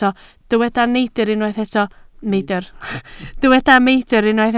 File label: "meidr", Welsh